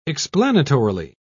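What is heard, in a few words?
"explanatorily", English, British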